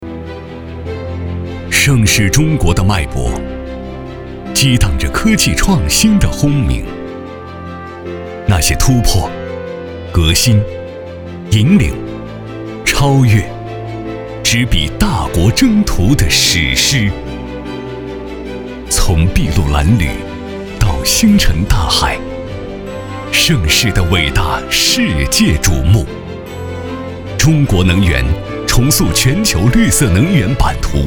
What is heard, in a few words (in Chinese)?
男 宣传片; 盛世大城-未来已来